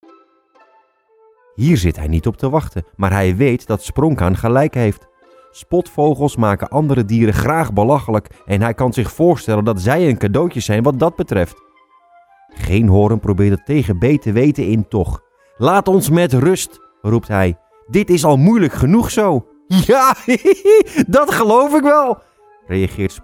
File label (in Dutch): Audioboek demo; Beluister hier hoe ik kan klinken in jouw audioboek; voice-over